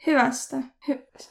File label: goodbye, Võro